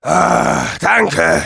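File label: Demoman thanks, German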